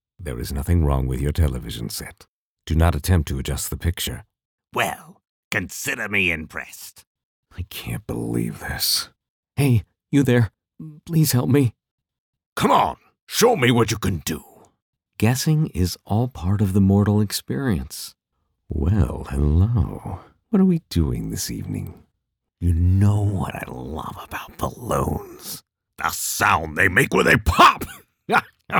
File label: Character Voice